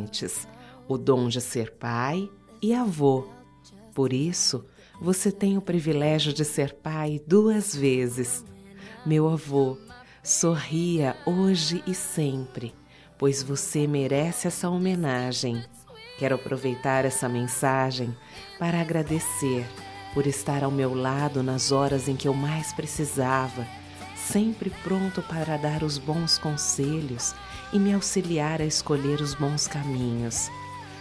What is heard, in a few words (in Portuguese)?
DIA DOS PAIS AVO, fem; Telemensagens 2017